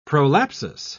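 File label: "prolapsus", British English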